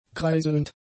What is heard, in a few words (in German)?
"kreiselnd", German; adjectives